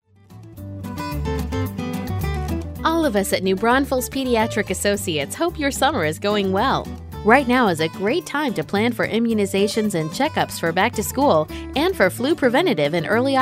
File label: on hold message; Demo Elements Medical